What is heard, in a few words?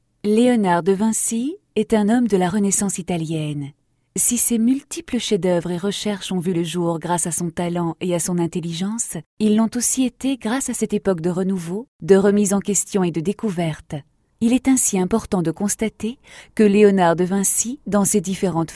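French, female, narration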